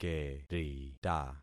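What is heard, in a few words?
"kerita", concatenated syllables; Affixing experiment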